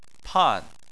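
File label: "pan" fourth tone, Chinese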